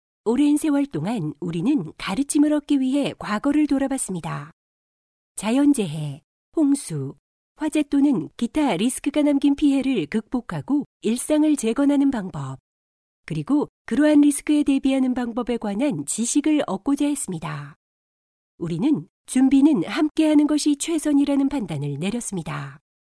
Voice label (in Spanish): locutora coreana korean voiceover; locutora de Corea; locutores coreanos